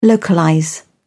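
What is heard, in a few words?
"localise", English, British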